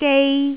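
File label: "gei1", Cantonese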